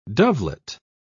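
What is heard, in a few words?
"dovelet", British English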